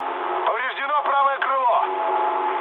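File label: right wing, mood low, Russian; War Thunder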